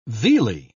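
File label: "vealy", British English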